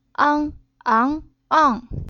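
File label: "Ang", Chinese syllable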